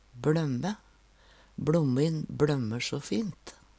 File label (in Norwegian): "bLømme", Numedalsmål